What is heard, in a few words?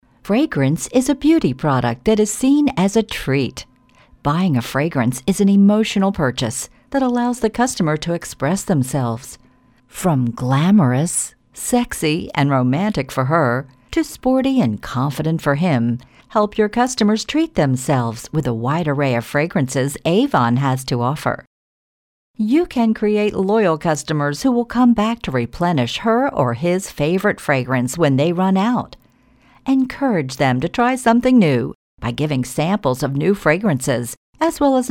english us and canada female generic